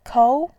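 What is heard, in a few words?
"kou2", Mandarin Chinese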